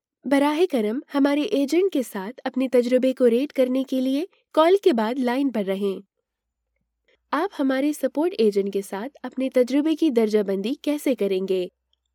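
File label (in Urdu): Urdu, IVR; voice demos; GoLocalise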